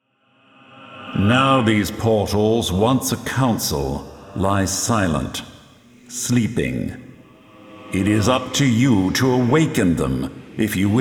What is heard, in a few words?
narrator; underworld ascendant; now these portals once a council